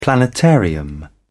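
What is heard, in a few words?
"planetarium", British English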